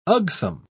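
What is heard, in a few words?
"ugsome", British English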